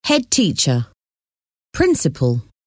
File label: "headteacher", "principal"